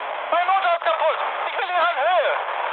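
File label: German damaged engine mood high, speech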